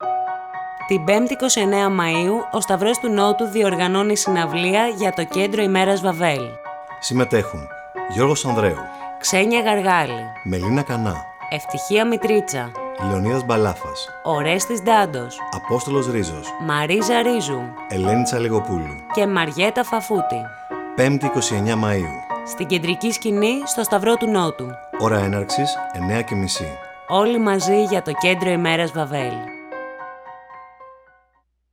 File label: spot